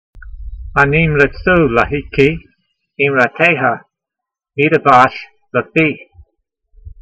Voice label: voice, hebrew language; Psalm 119:103; ma-neemletsoo leheekee eemrateyha mee'devash lefee